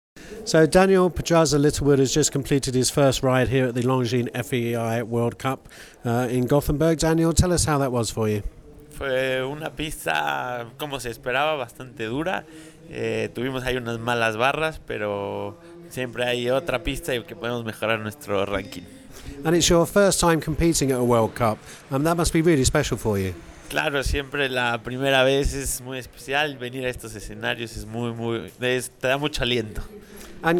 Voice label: Spanish; Longines FEI World Cup Jumping

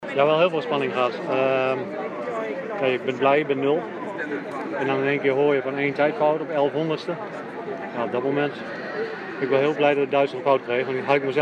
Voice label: dutch; FEI Aachen rd3; FEI European Championships Aachen 2015; Team Gold, Dutch Audio

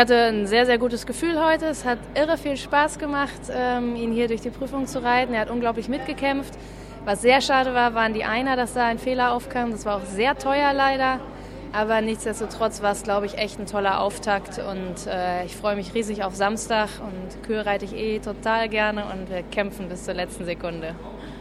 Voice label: ger

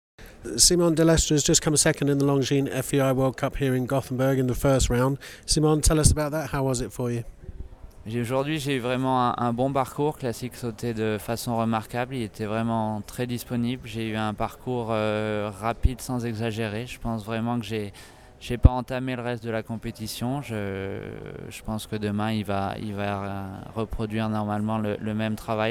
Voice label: French; Longines FEI World Cup Jumping Simon Delestre

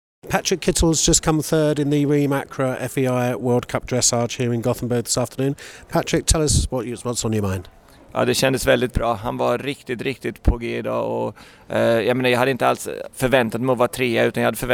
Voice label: Swedish; eem Acra FEI World Cup Dressage Patrik Kittel third

